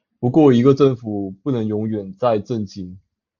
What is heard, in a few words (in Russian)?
Голоса, Тайваньский